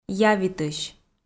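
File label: "явитысь", Komi, female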